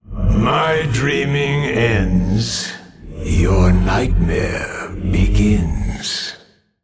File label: Male Old God Emote Start